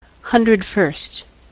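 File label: "hundred first", US English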